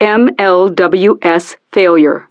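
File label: "MLWS Failure", English; Betty; DCS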